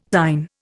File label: "thine", Indian